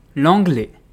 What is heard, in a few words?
"anglais", French